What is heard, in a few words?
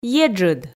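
"еджыд", Komi, female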